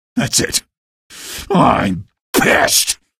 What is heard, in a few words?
buddy killed, male; video games; npc; source engine; episodic; episode 1